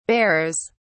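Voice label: "bearers", English, British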